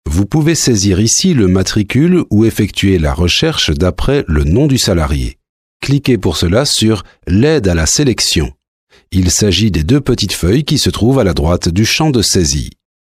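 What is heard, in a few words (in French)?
Belgian French, male, e-learning; voice demos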